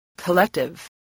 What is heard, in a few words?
"collective", voice